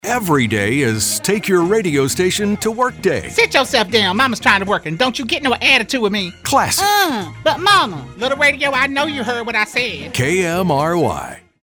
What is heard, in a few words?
LINER RADIO; KMRY; RADIO TO WORK